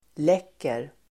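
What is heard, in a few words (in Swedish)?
"läcker", Swedish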